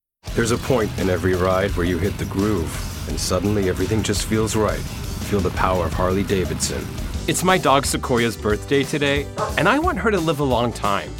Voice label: english american commercial; English (American) English (British); United States; voice actor located in New York, NY

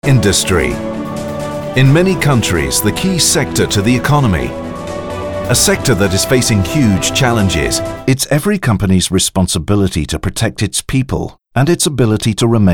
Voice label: english british corporate videos

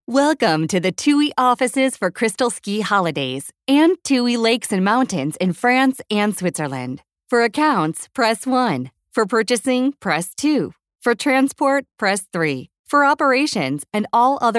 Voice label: english american ivr

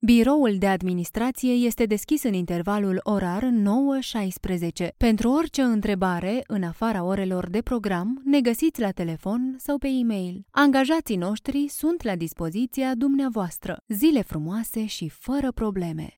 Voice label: romanian corporate videos

